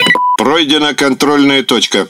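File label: Russian Speech Autopilot Checkpoint; World Of Warships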